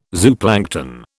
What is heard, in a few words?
"zooplankton", British